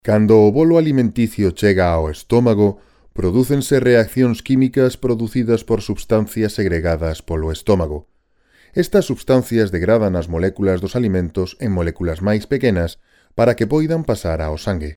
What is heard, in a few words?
locutor gallego galician voiceover